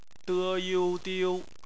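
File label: "diu" bopomofo, Chinese; phonetics; bpmf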